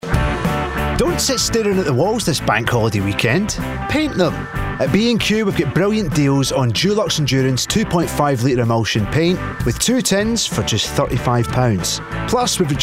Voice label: commercial; BQ